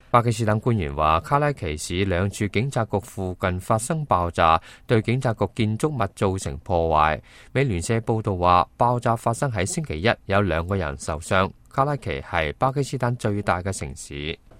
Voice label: cantonese, news